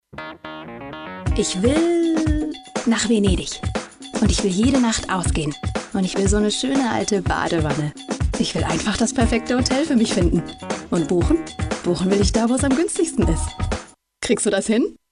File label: german female